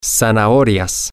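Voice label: carrots, Spanish, male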